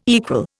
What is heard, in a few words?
"equal", Indian